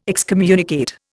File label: "excommunicate", Indian